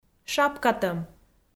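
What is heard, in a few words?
"шапкатӧм", Komi, female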